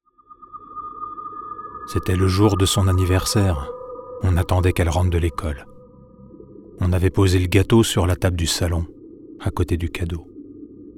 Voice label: voix off film disparitions

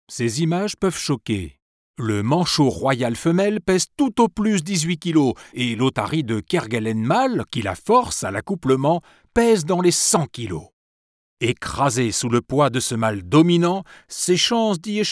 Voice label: voix off docu otaries

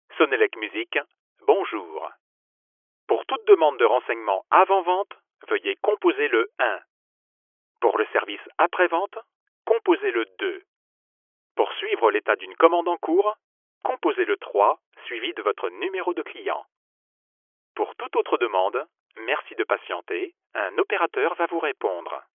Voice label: voix off attente tel; sonelec

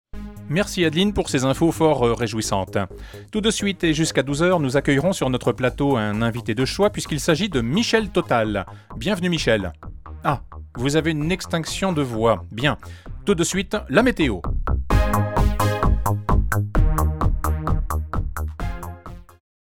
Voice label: test micro at8010

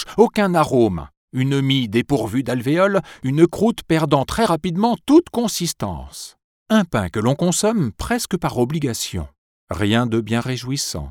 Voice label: voix off docu baguette

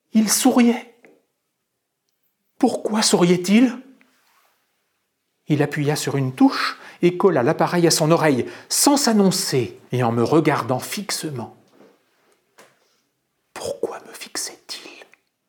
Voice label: voix off lecture voix haute; passager douteux

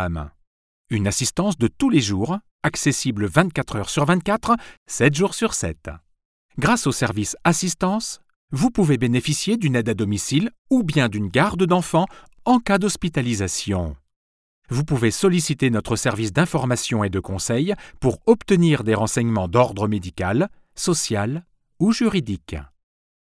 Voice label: voix off instit mutuelle; ciel

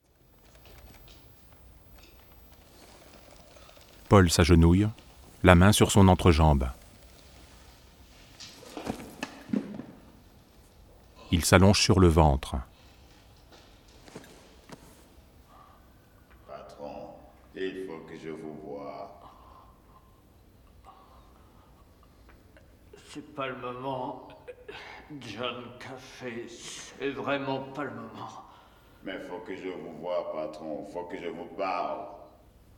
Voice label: voix off audiodesc; ligne verte

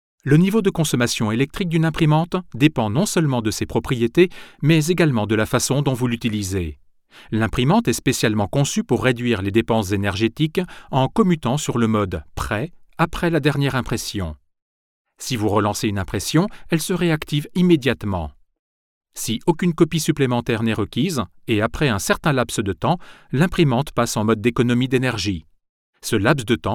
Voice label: voix off; instit; brother blue angel

